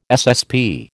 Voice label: "SSP", American

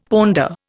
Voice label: "ponder", Indian; Dictionary

